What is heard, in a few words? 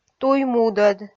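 "туй мудӧд", Komi, female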